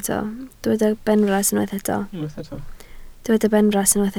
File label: "benfras", Welsh